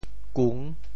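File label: "kung6", Teochew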